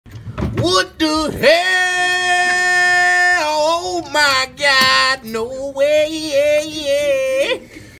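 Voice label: wut da heeeeeeeeeeeeeeel oooh maa gaaaad no waaayyaayyaaaaae sound effect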